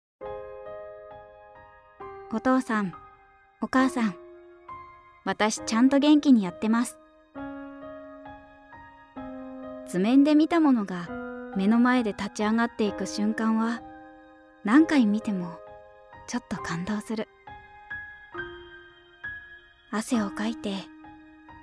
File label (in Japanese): モノローグ（若め、ナチュラル）